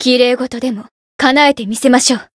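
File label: Voice variable chant, Japanese; felmina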